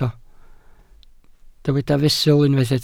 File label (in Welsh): "fesul", Welsh